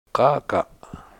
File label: "k'Ak'a7", Washo, heron